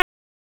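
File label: Raleigh Police Northeast District Dispatch; wake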